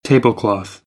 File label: "tablecloth", American, English; ɑː - ɒ